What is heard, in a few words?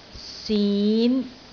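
"sin5", Cantonese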